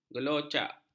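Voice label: "gelocak", Malay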